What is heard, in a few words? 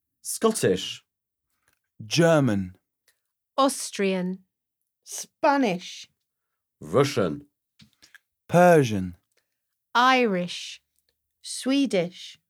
Speak Accentuatio